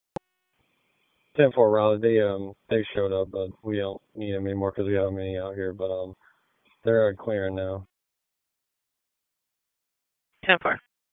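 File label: Police District Dispatch, p25; Raleigh Police Southwest District Disp; wake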